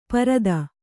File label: "ಪಾರುಧಿ", kannada